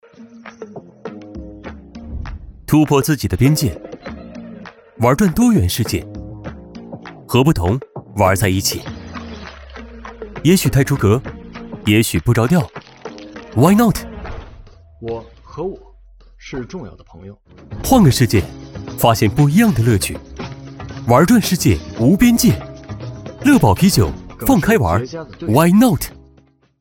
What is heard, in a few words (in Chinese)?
广告时尚活力; 乐堡啤酒